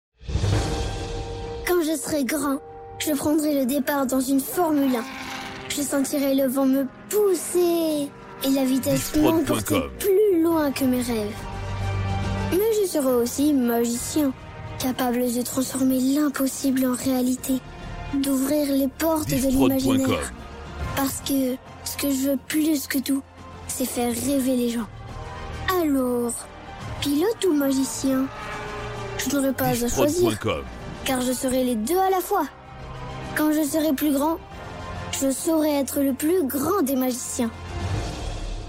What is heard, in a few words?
Spot; Enfant Pilote ou magicien